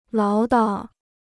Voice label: "唠叨", Chinese

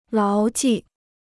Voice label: "牢记", Chinese

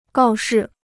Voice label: "告示", Chinese

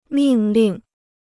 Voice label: "命令", Chinese

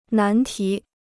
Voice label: "难题", Chinese

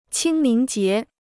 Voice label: "清明节", Chinese

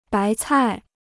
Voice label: "白菜", Chinese

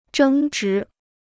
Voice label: "争执", Chinese

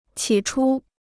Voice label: "起初", Chinese

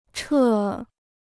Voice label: "撤", Chinese

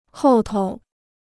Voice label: "后头", Chinese

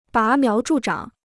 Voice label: "拔苗助长", Chinese

